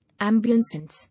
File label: "Ambience", English